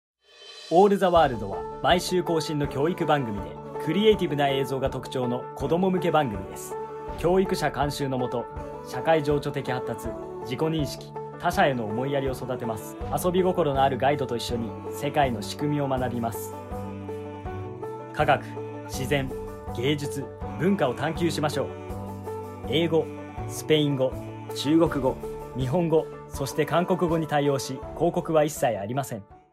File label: Voice Reel JP